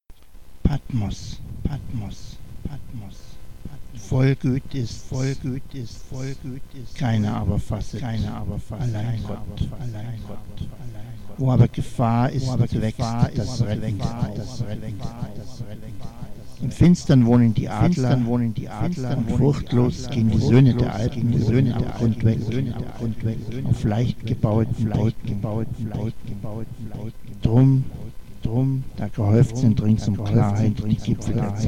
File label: loud echo; hoelderlin; patmos